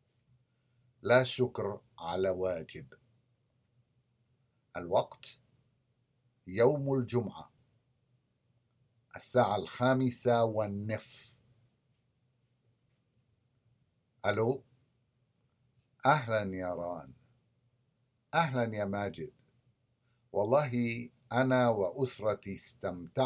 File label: Conversation; SALAMAAT